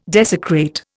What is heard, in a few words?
"desecrate", Indian